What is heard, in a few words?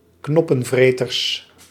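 "knoppenvreters", Dutch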